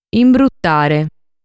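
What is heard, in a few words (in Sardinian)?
"Imbrutare", Sardinian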